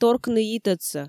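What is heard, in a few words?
"торкны йитӧдсӧ", Komi, female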